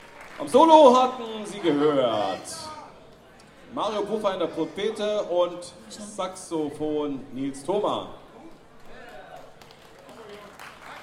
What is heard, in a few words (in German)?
Ansage, Konzert; Boemundhof, Saarburg